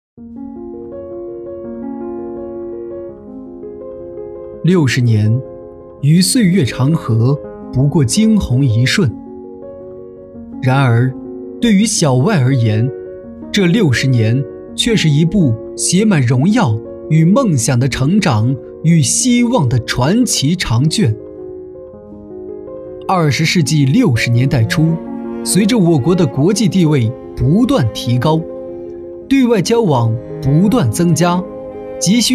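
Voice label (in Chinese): 宣传片走心大气; 天津外国语学校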